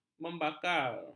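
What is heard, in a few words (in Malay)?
"membakar", Malay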